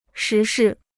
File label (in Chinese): "时事", Chinese